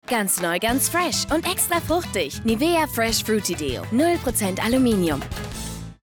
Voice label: Werbung Bumper; Nivea Fruity Fresh

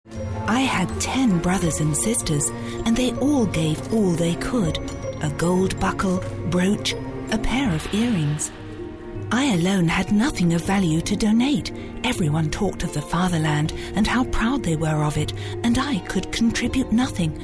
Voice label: uk englisch

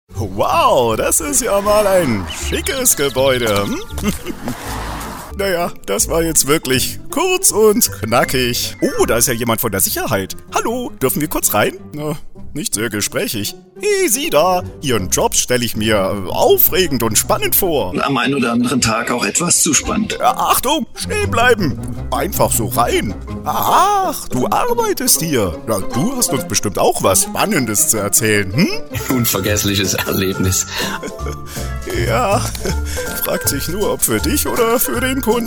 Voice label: Comedy Moderator witzige Stimme knoedelig

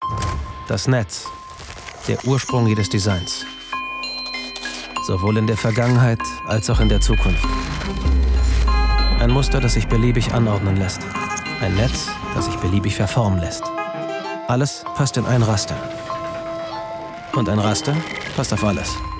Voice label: Werbung TV, Commercial, German; Adidas

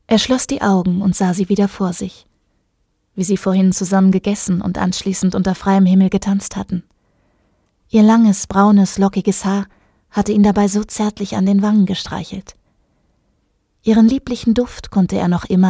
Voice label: Hörbuch Beispiel

